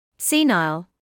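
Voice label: "senile", British English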